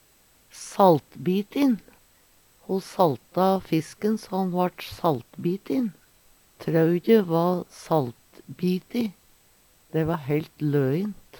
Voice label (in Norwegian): saltbibin, Numedalsmål